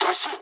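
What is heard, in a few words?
Japanese bailout mood low; War Thunder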